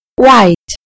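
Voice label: "white", British English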